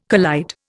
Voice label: "collide", Indian